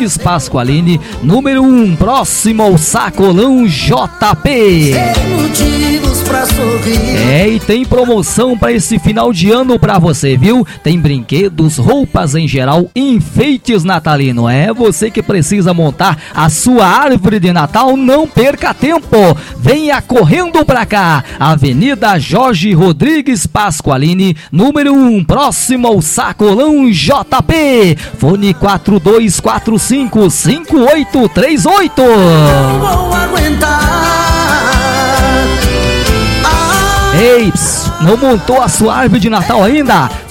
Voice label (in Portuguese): locutor rodeios bazar